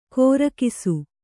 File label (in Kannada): "ಕೋರಕಿಸು", Kannada